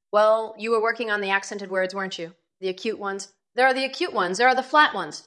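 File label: VoiceActing Spanish, female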